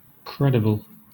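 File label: "credible", British English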